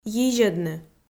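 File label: "йизьӧдны", Komi, female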